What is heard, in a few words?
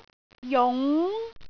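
"jung2", Cantonese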